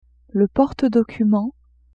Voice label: "porte-documents", French; vetements